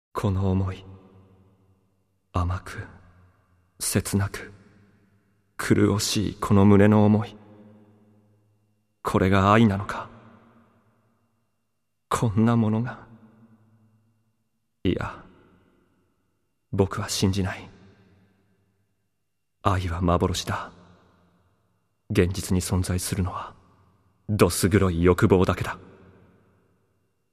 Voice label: monologue